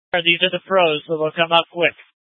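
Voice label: Incident Tac 3, Scanner audio recording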